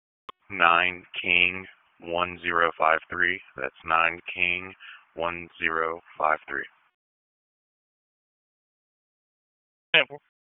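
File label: Dispatch, p25; WSO DISP 1; wake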